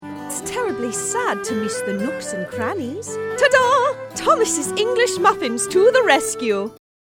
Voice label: English Muffins English Accent